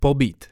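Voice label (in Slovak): "pobyt", Slovak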